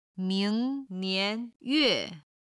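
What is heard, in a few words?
"mingnian yue", Chinese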